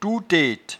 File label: "toueteteoiseau", patois jura